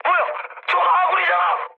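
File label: Korean player killed ally mood high, speech; War Thunder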